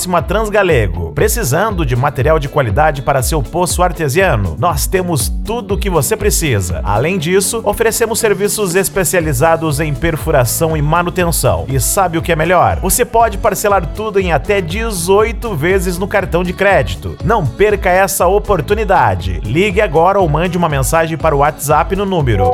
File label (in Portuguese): Locutor Casa Dos Pocos Artesianos; Itaituba PA